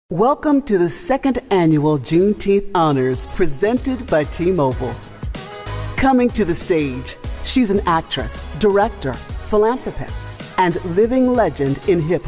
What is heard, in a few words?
Voice of God